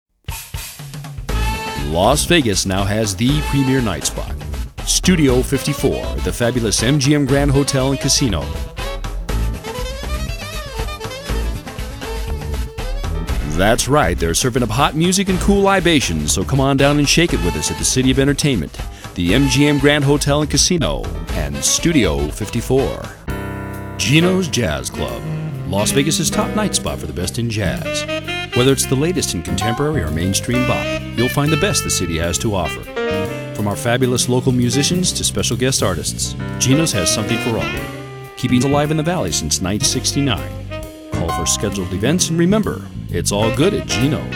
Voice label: commercials